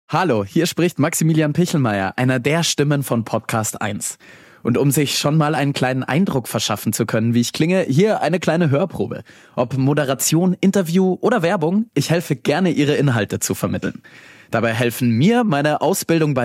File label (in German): Hoerprobe, Podcast Moderatoren; Stimmen, die Geschichten lebendig machen; podcast-eins